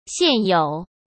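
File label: "现有", Mandarin Chinese; chinese flashcards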